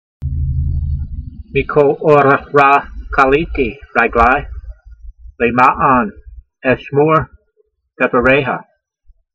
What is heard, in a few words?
voice, hebrew language; Psalm 119:101; meekol-o'rah rah kaleetee raglai, lema'an eshmor devareyha